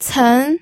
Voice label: "cen2", pinyin